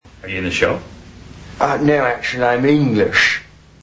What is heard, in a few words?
english